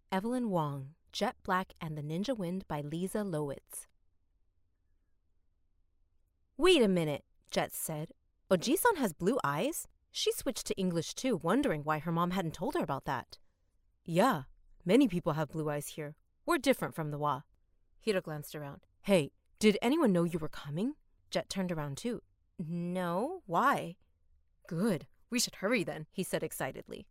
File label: 1st Person Fiction Male Voice; Comedic Reel